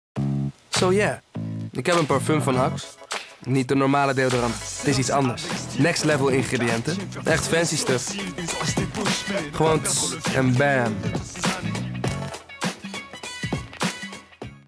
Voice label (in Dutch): Stoer en nonchalant, voice-over; Axe